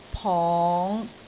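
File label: "pong5", Cantonese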